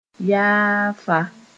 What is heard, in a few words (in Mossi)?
"yaafa", moore